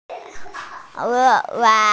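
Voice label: "wan2ju4", Chinese, F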